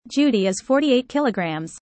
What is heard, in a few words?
user fast